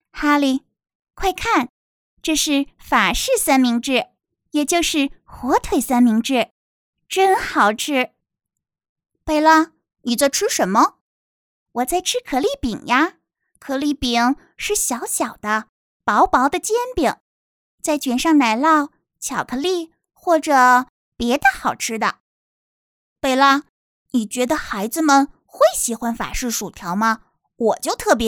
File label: kids